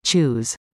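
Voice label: "choose", English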